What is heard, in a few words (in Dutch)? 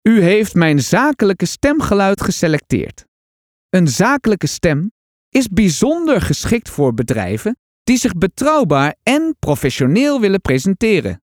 Zakelijk